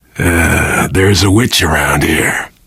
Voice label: heard witch, survivor voice